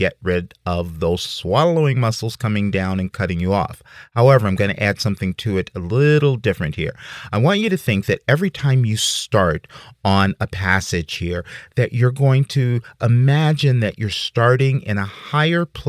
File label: instructions for exercise male